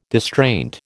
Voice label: "distraint", American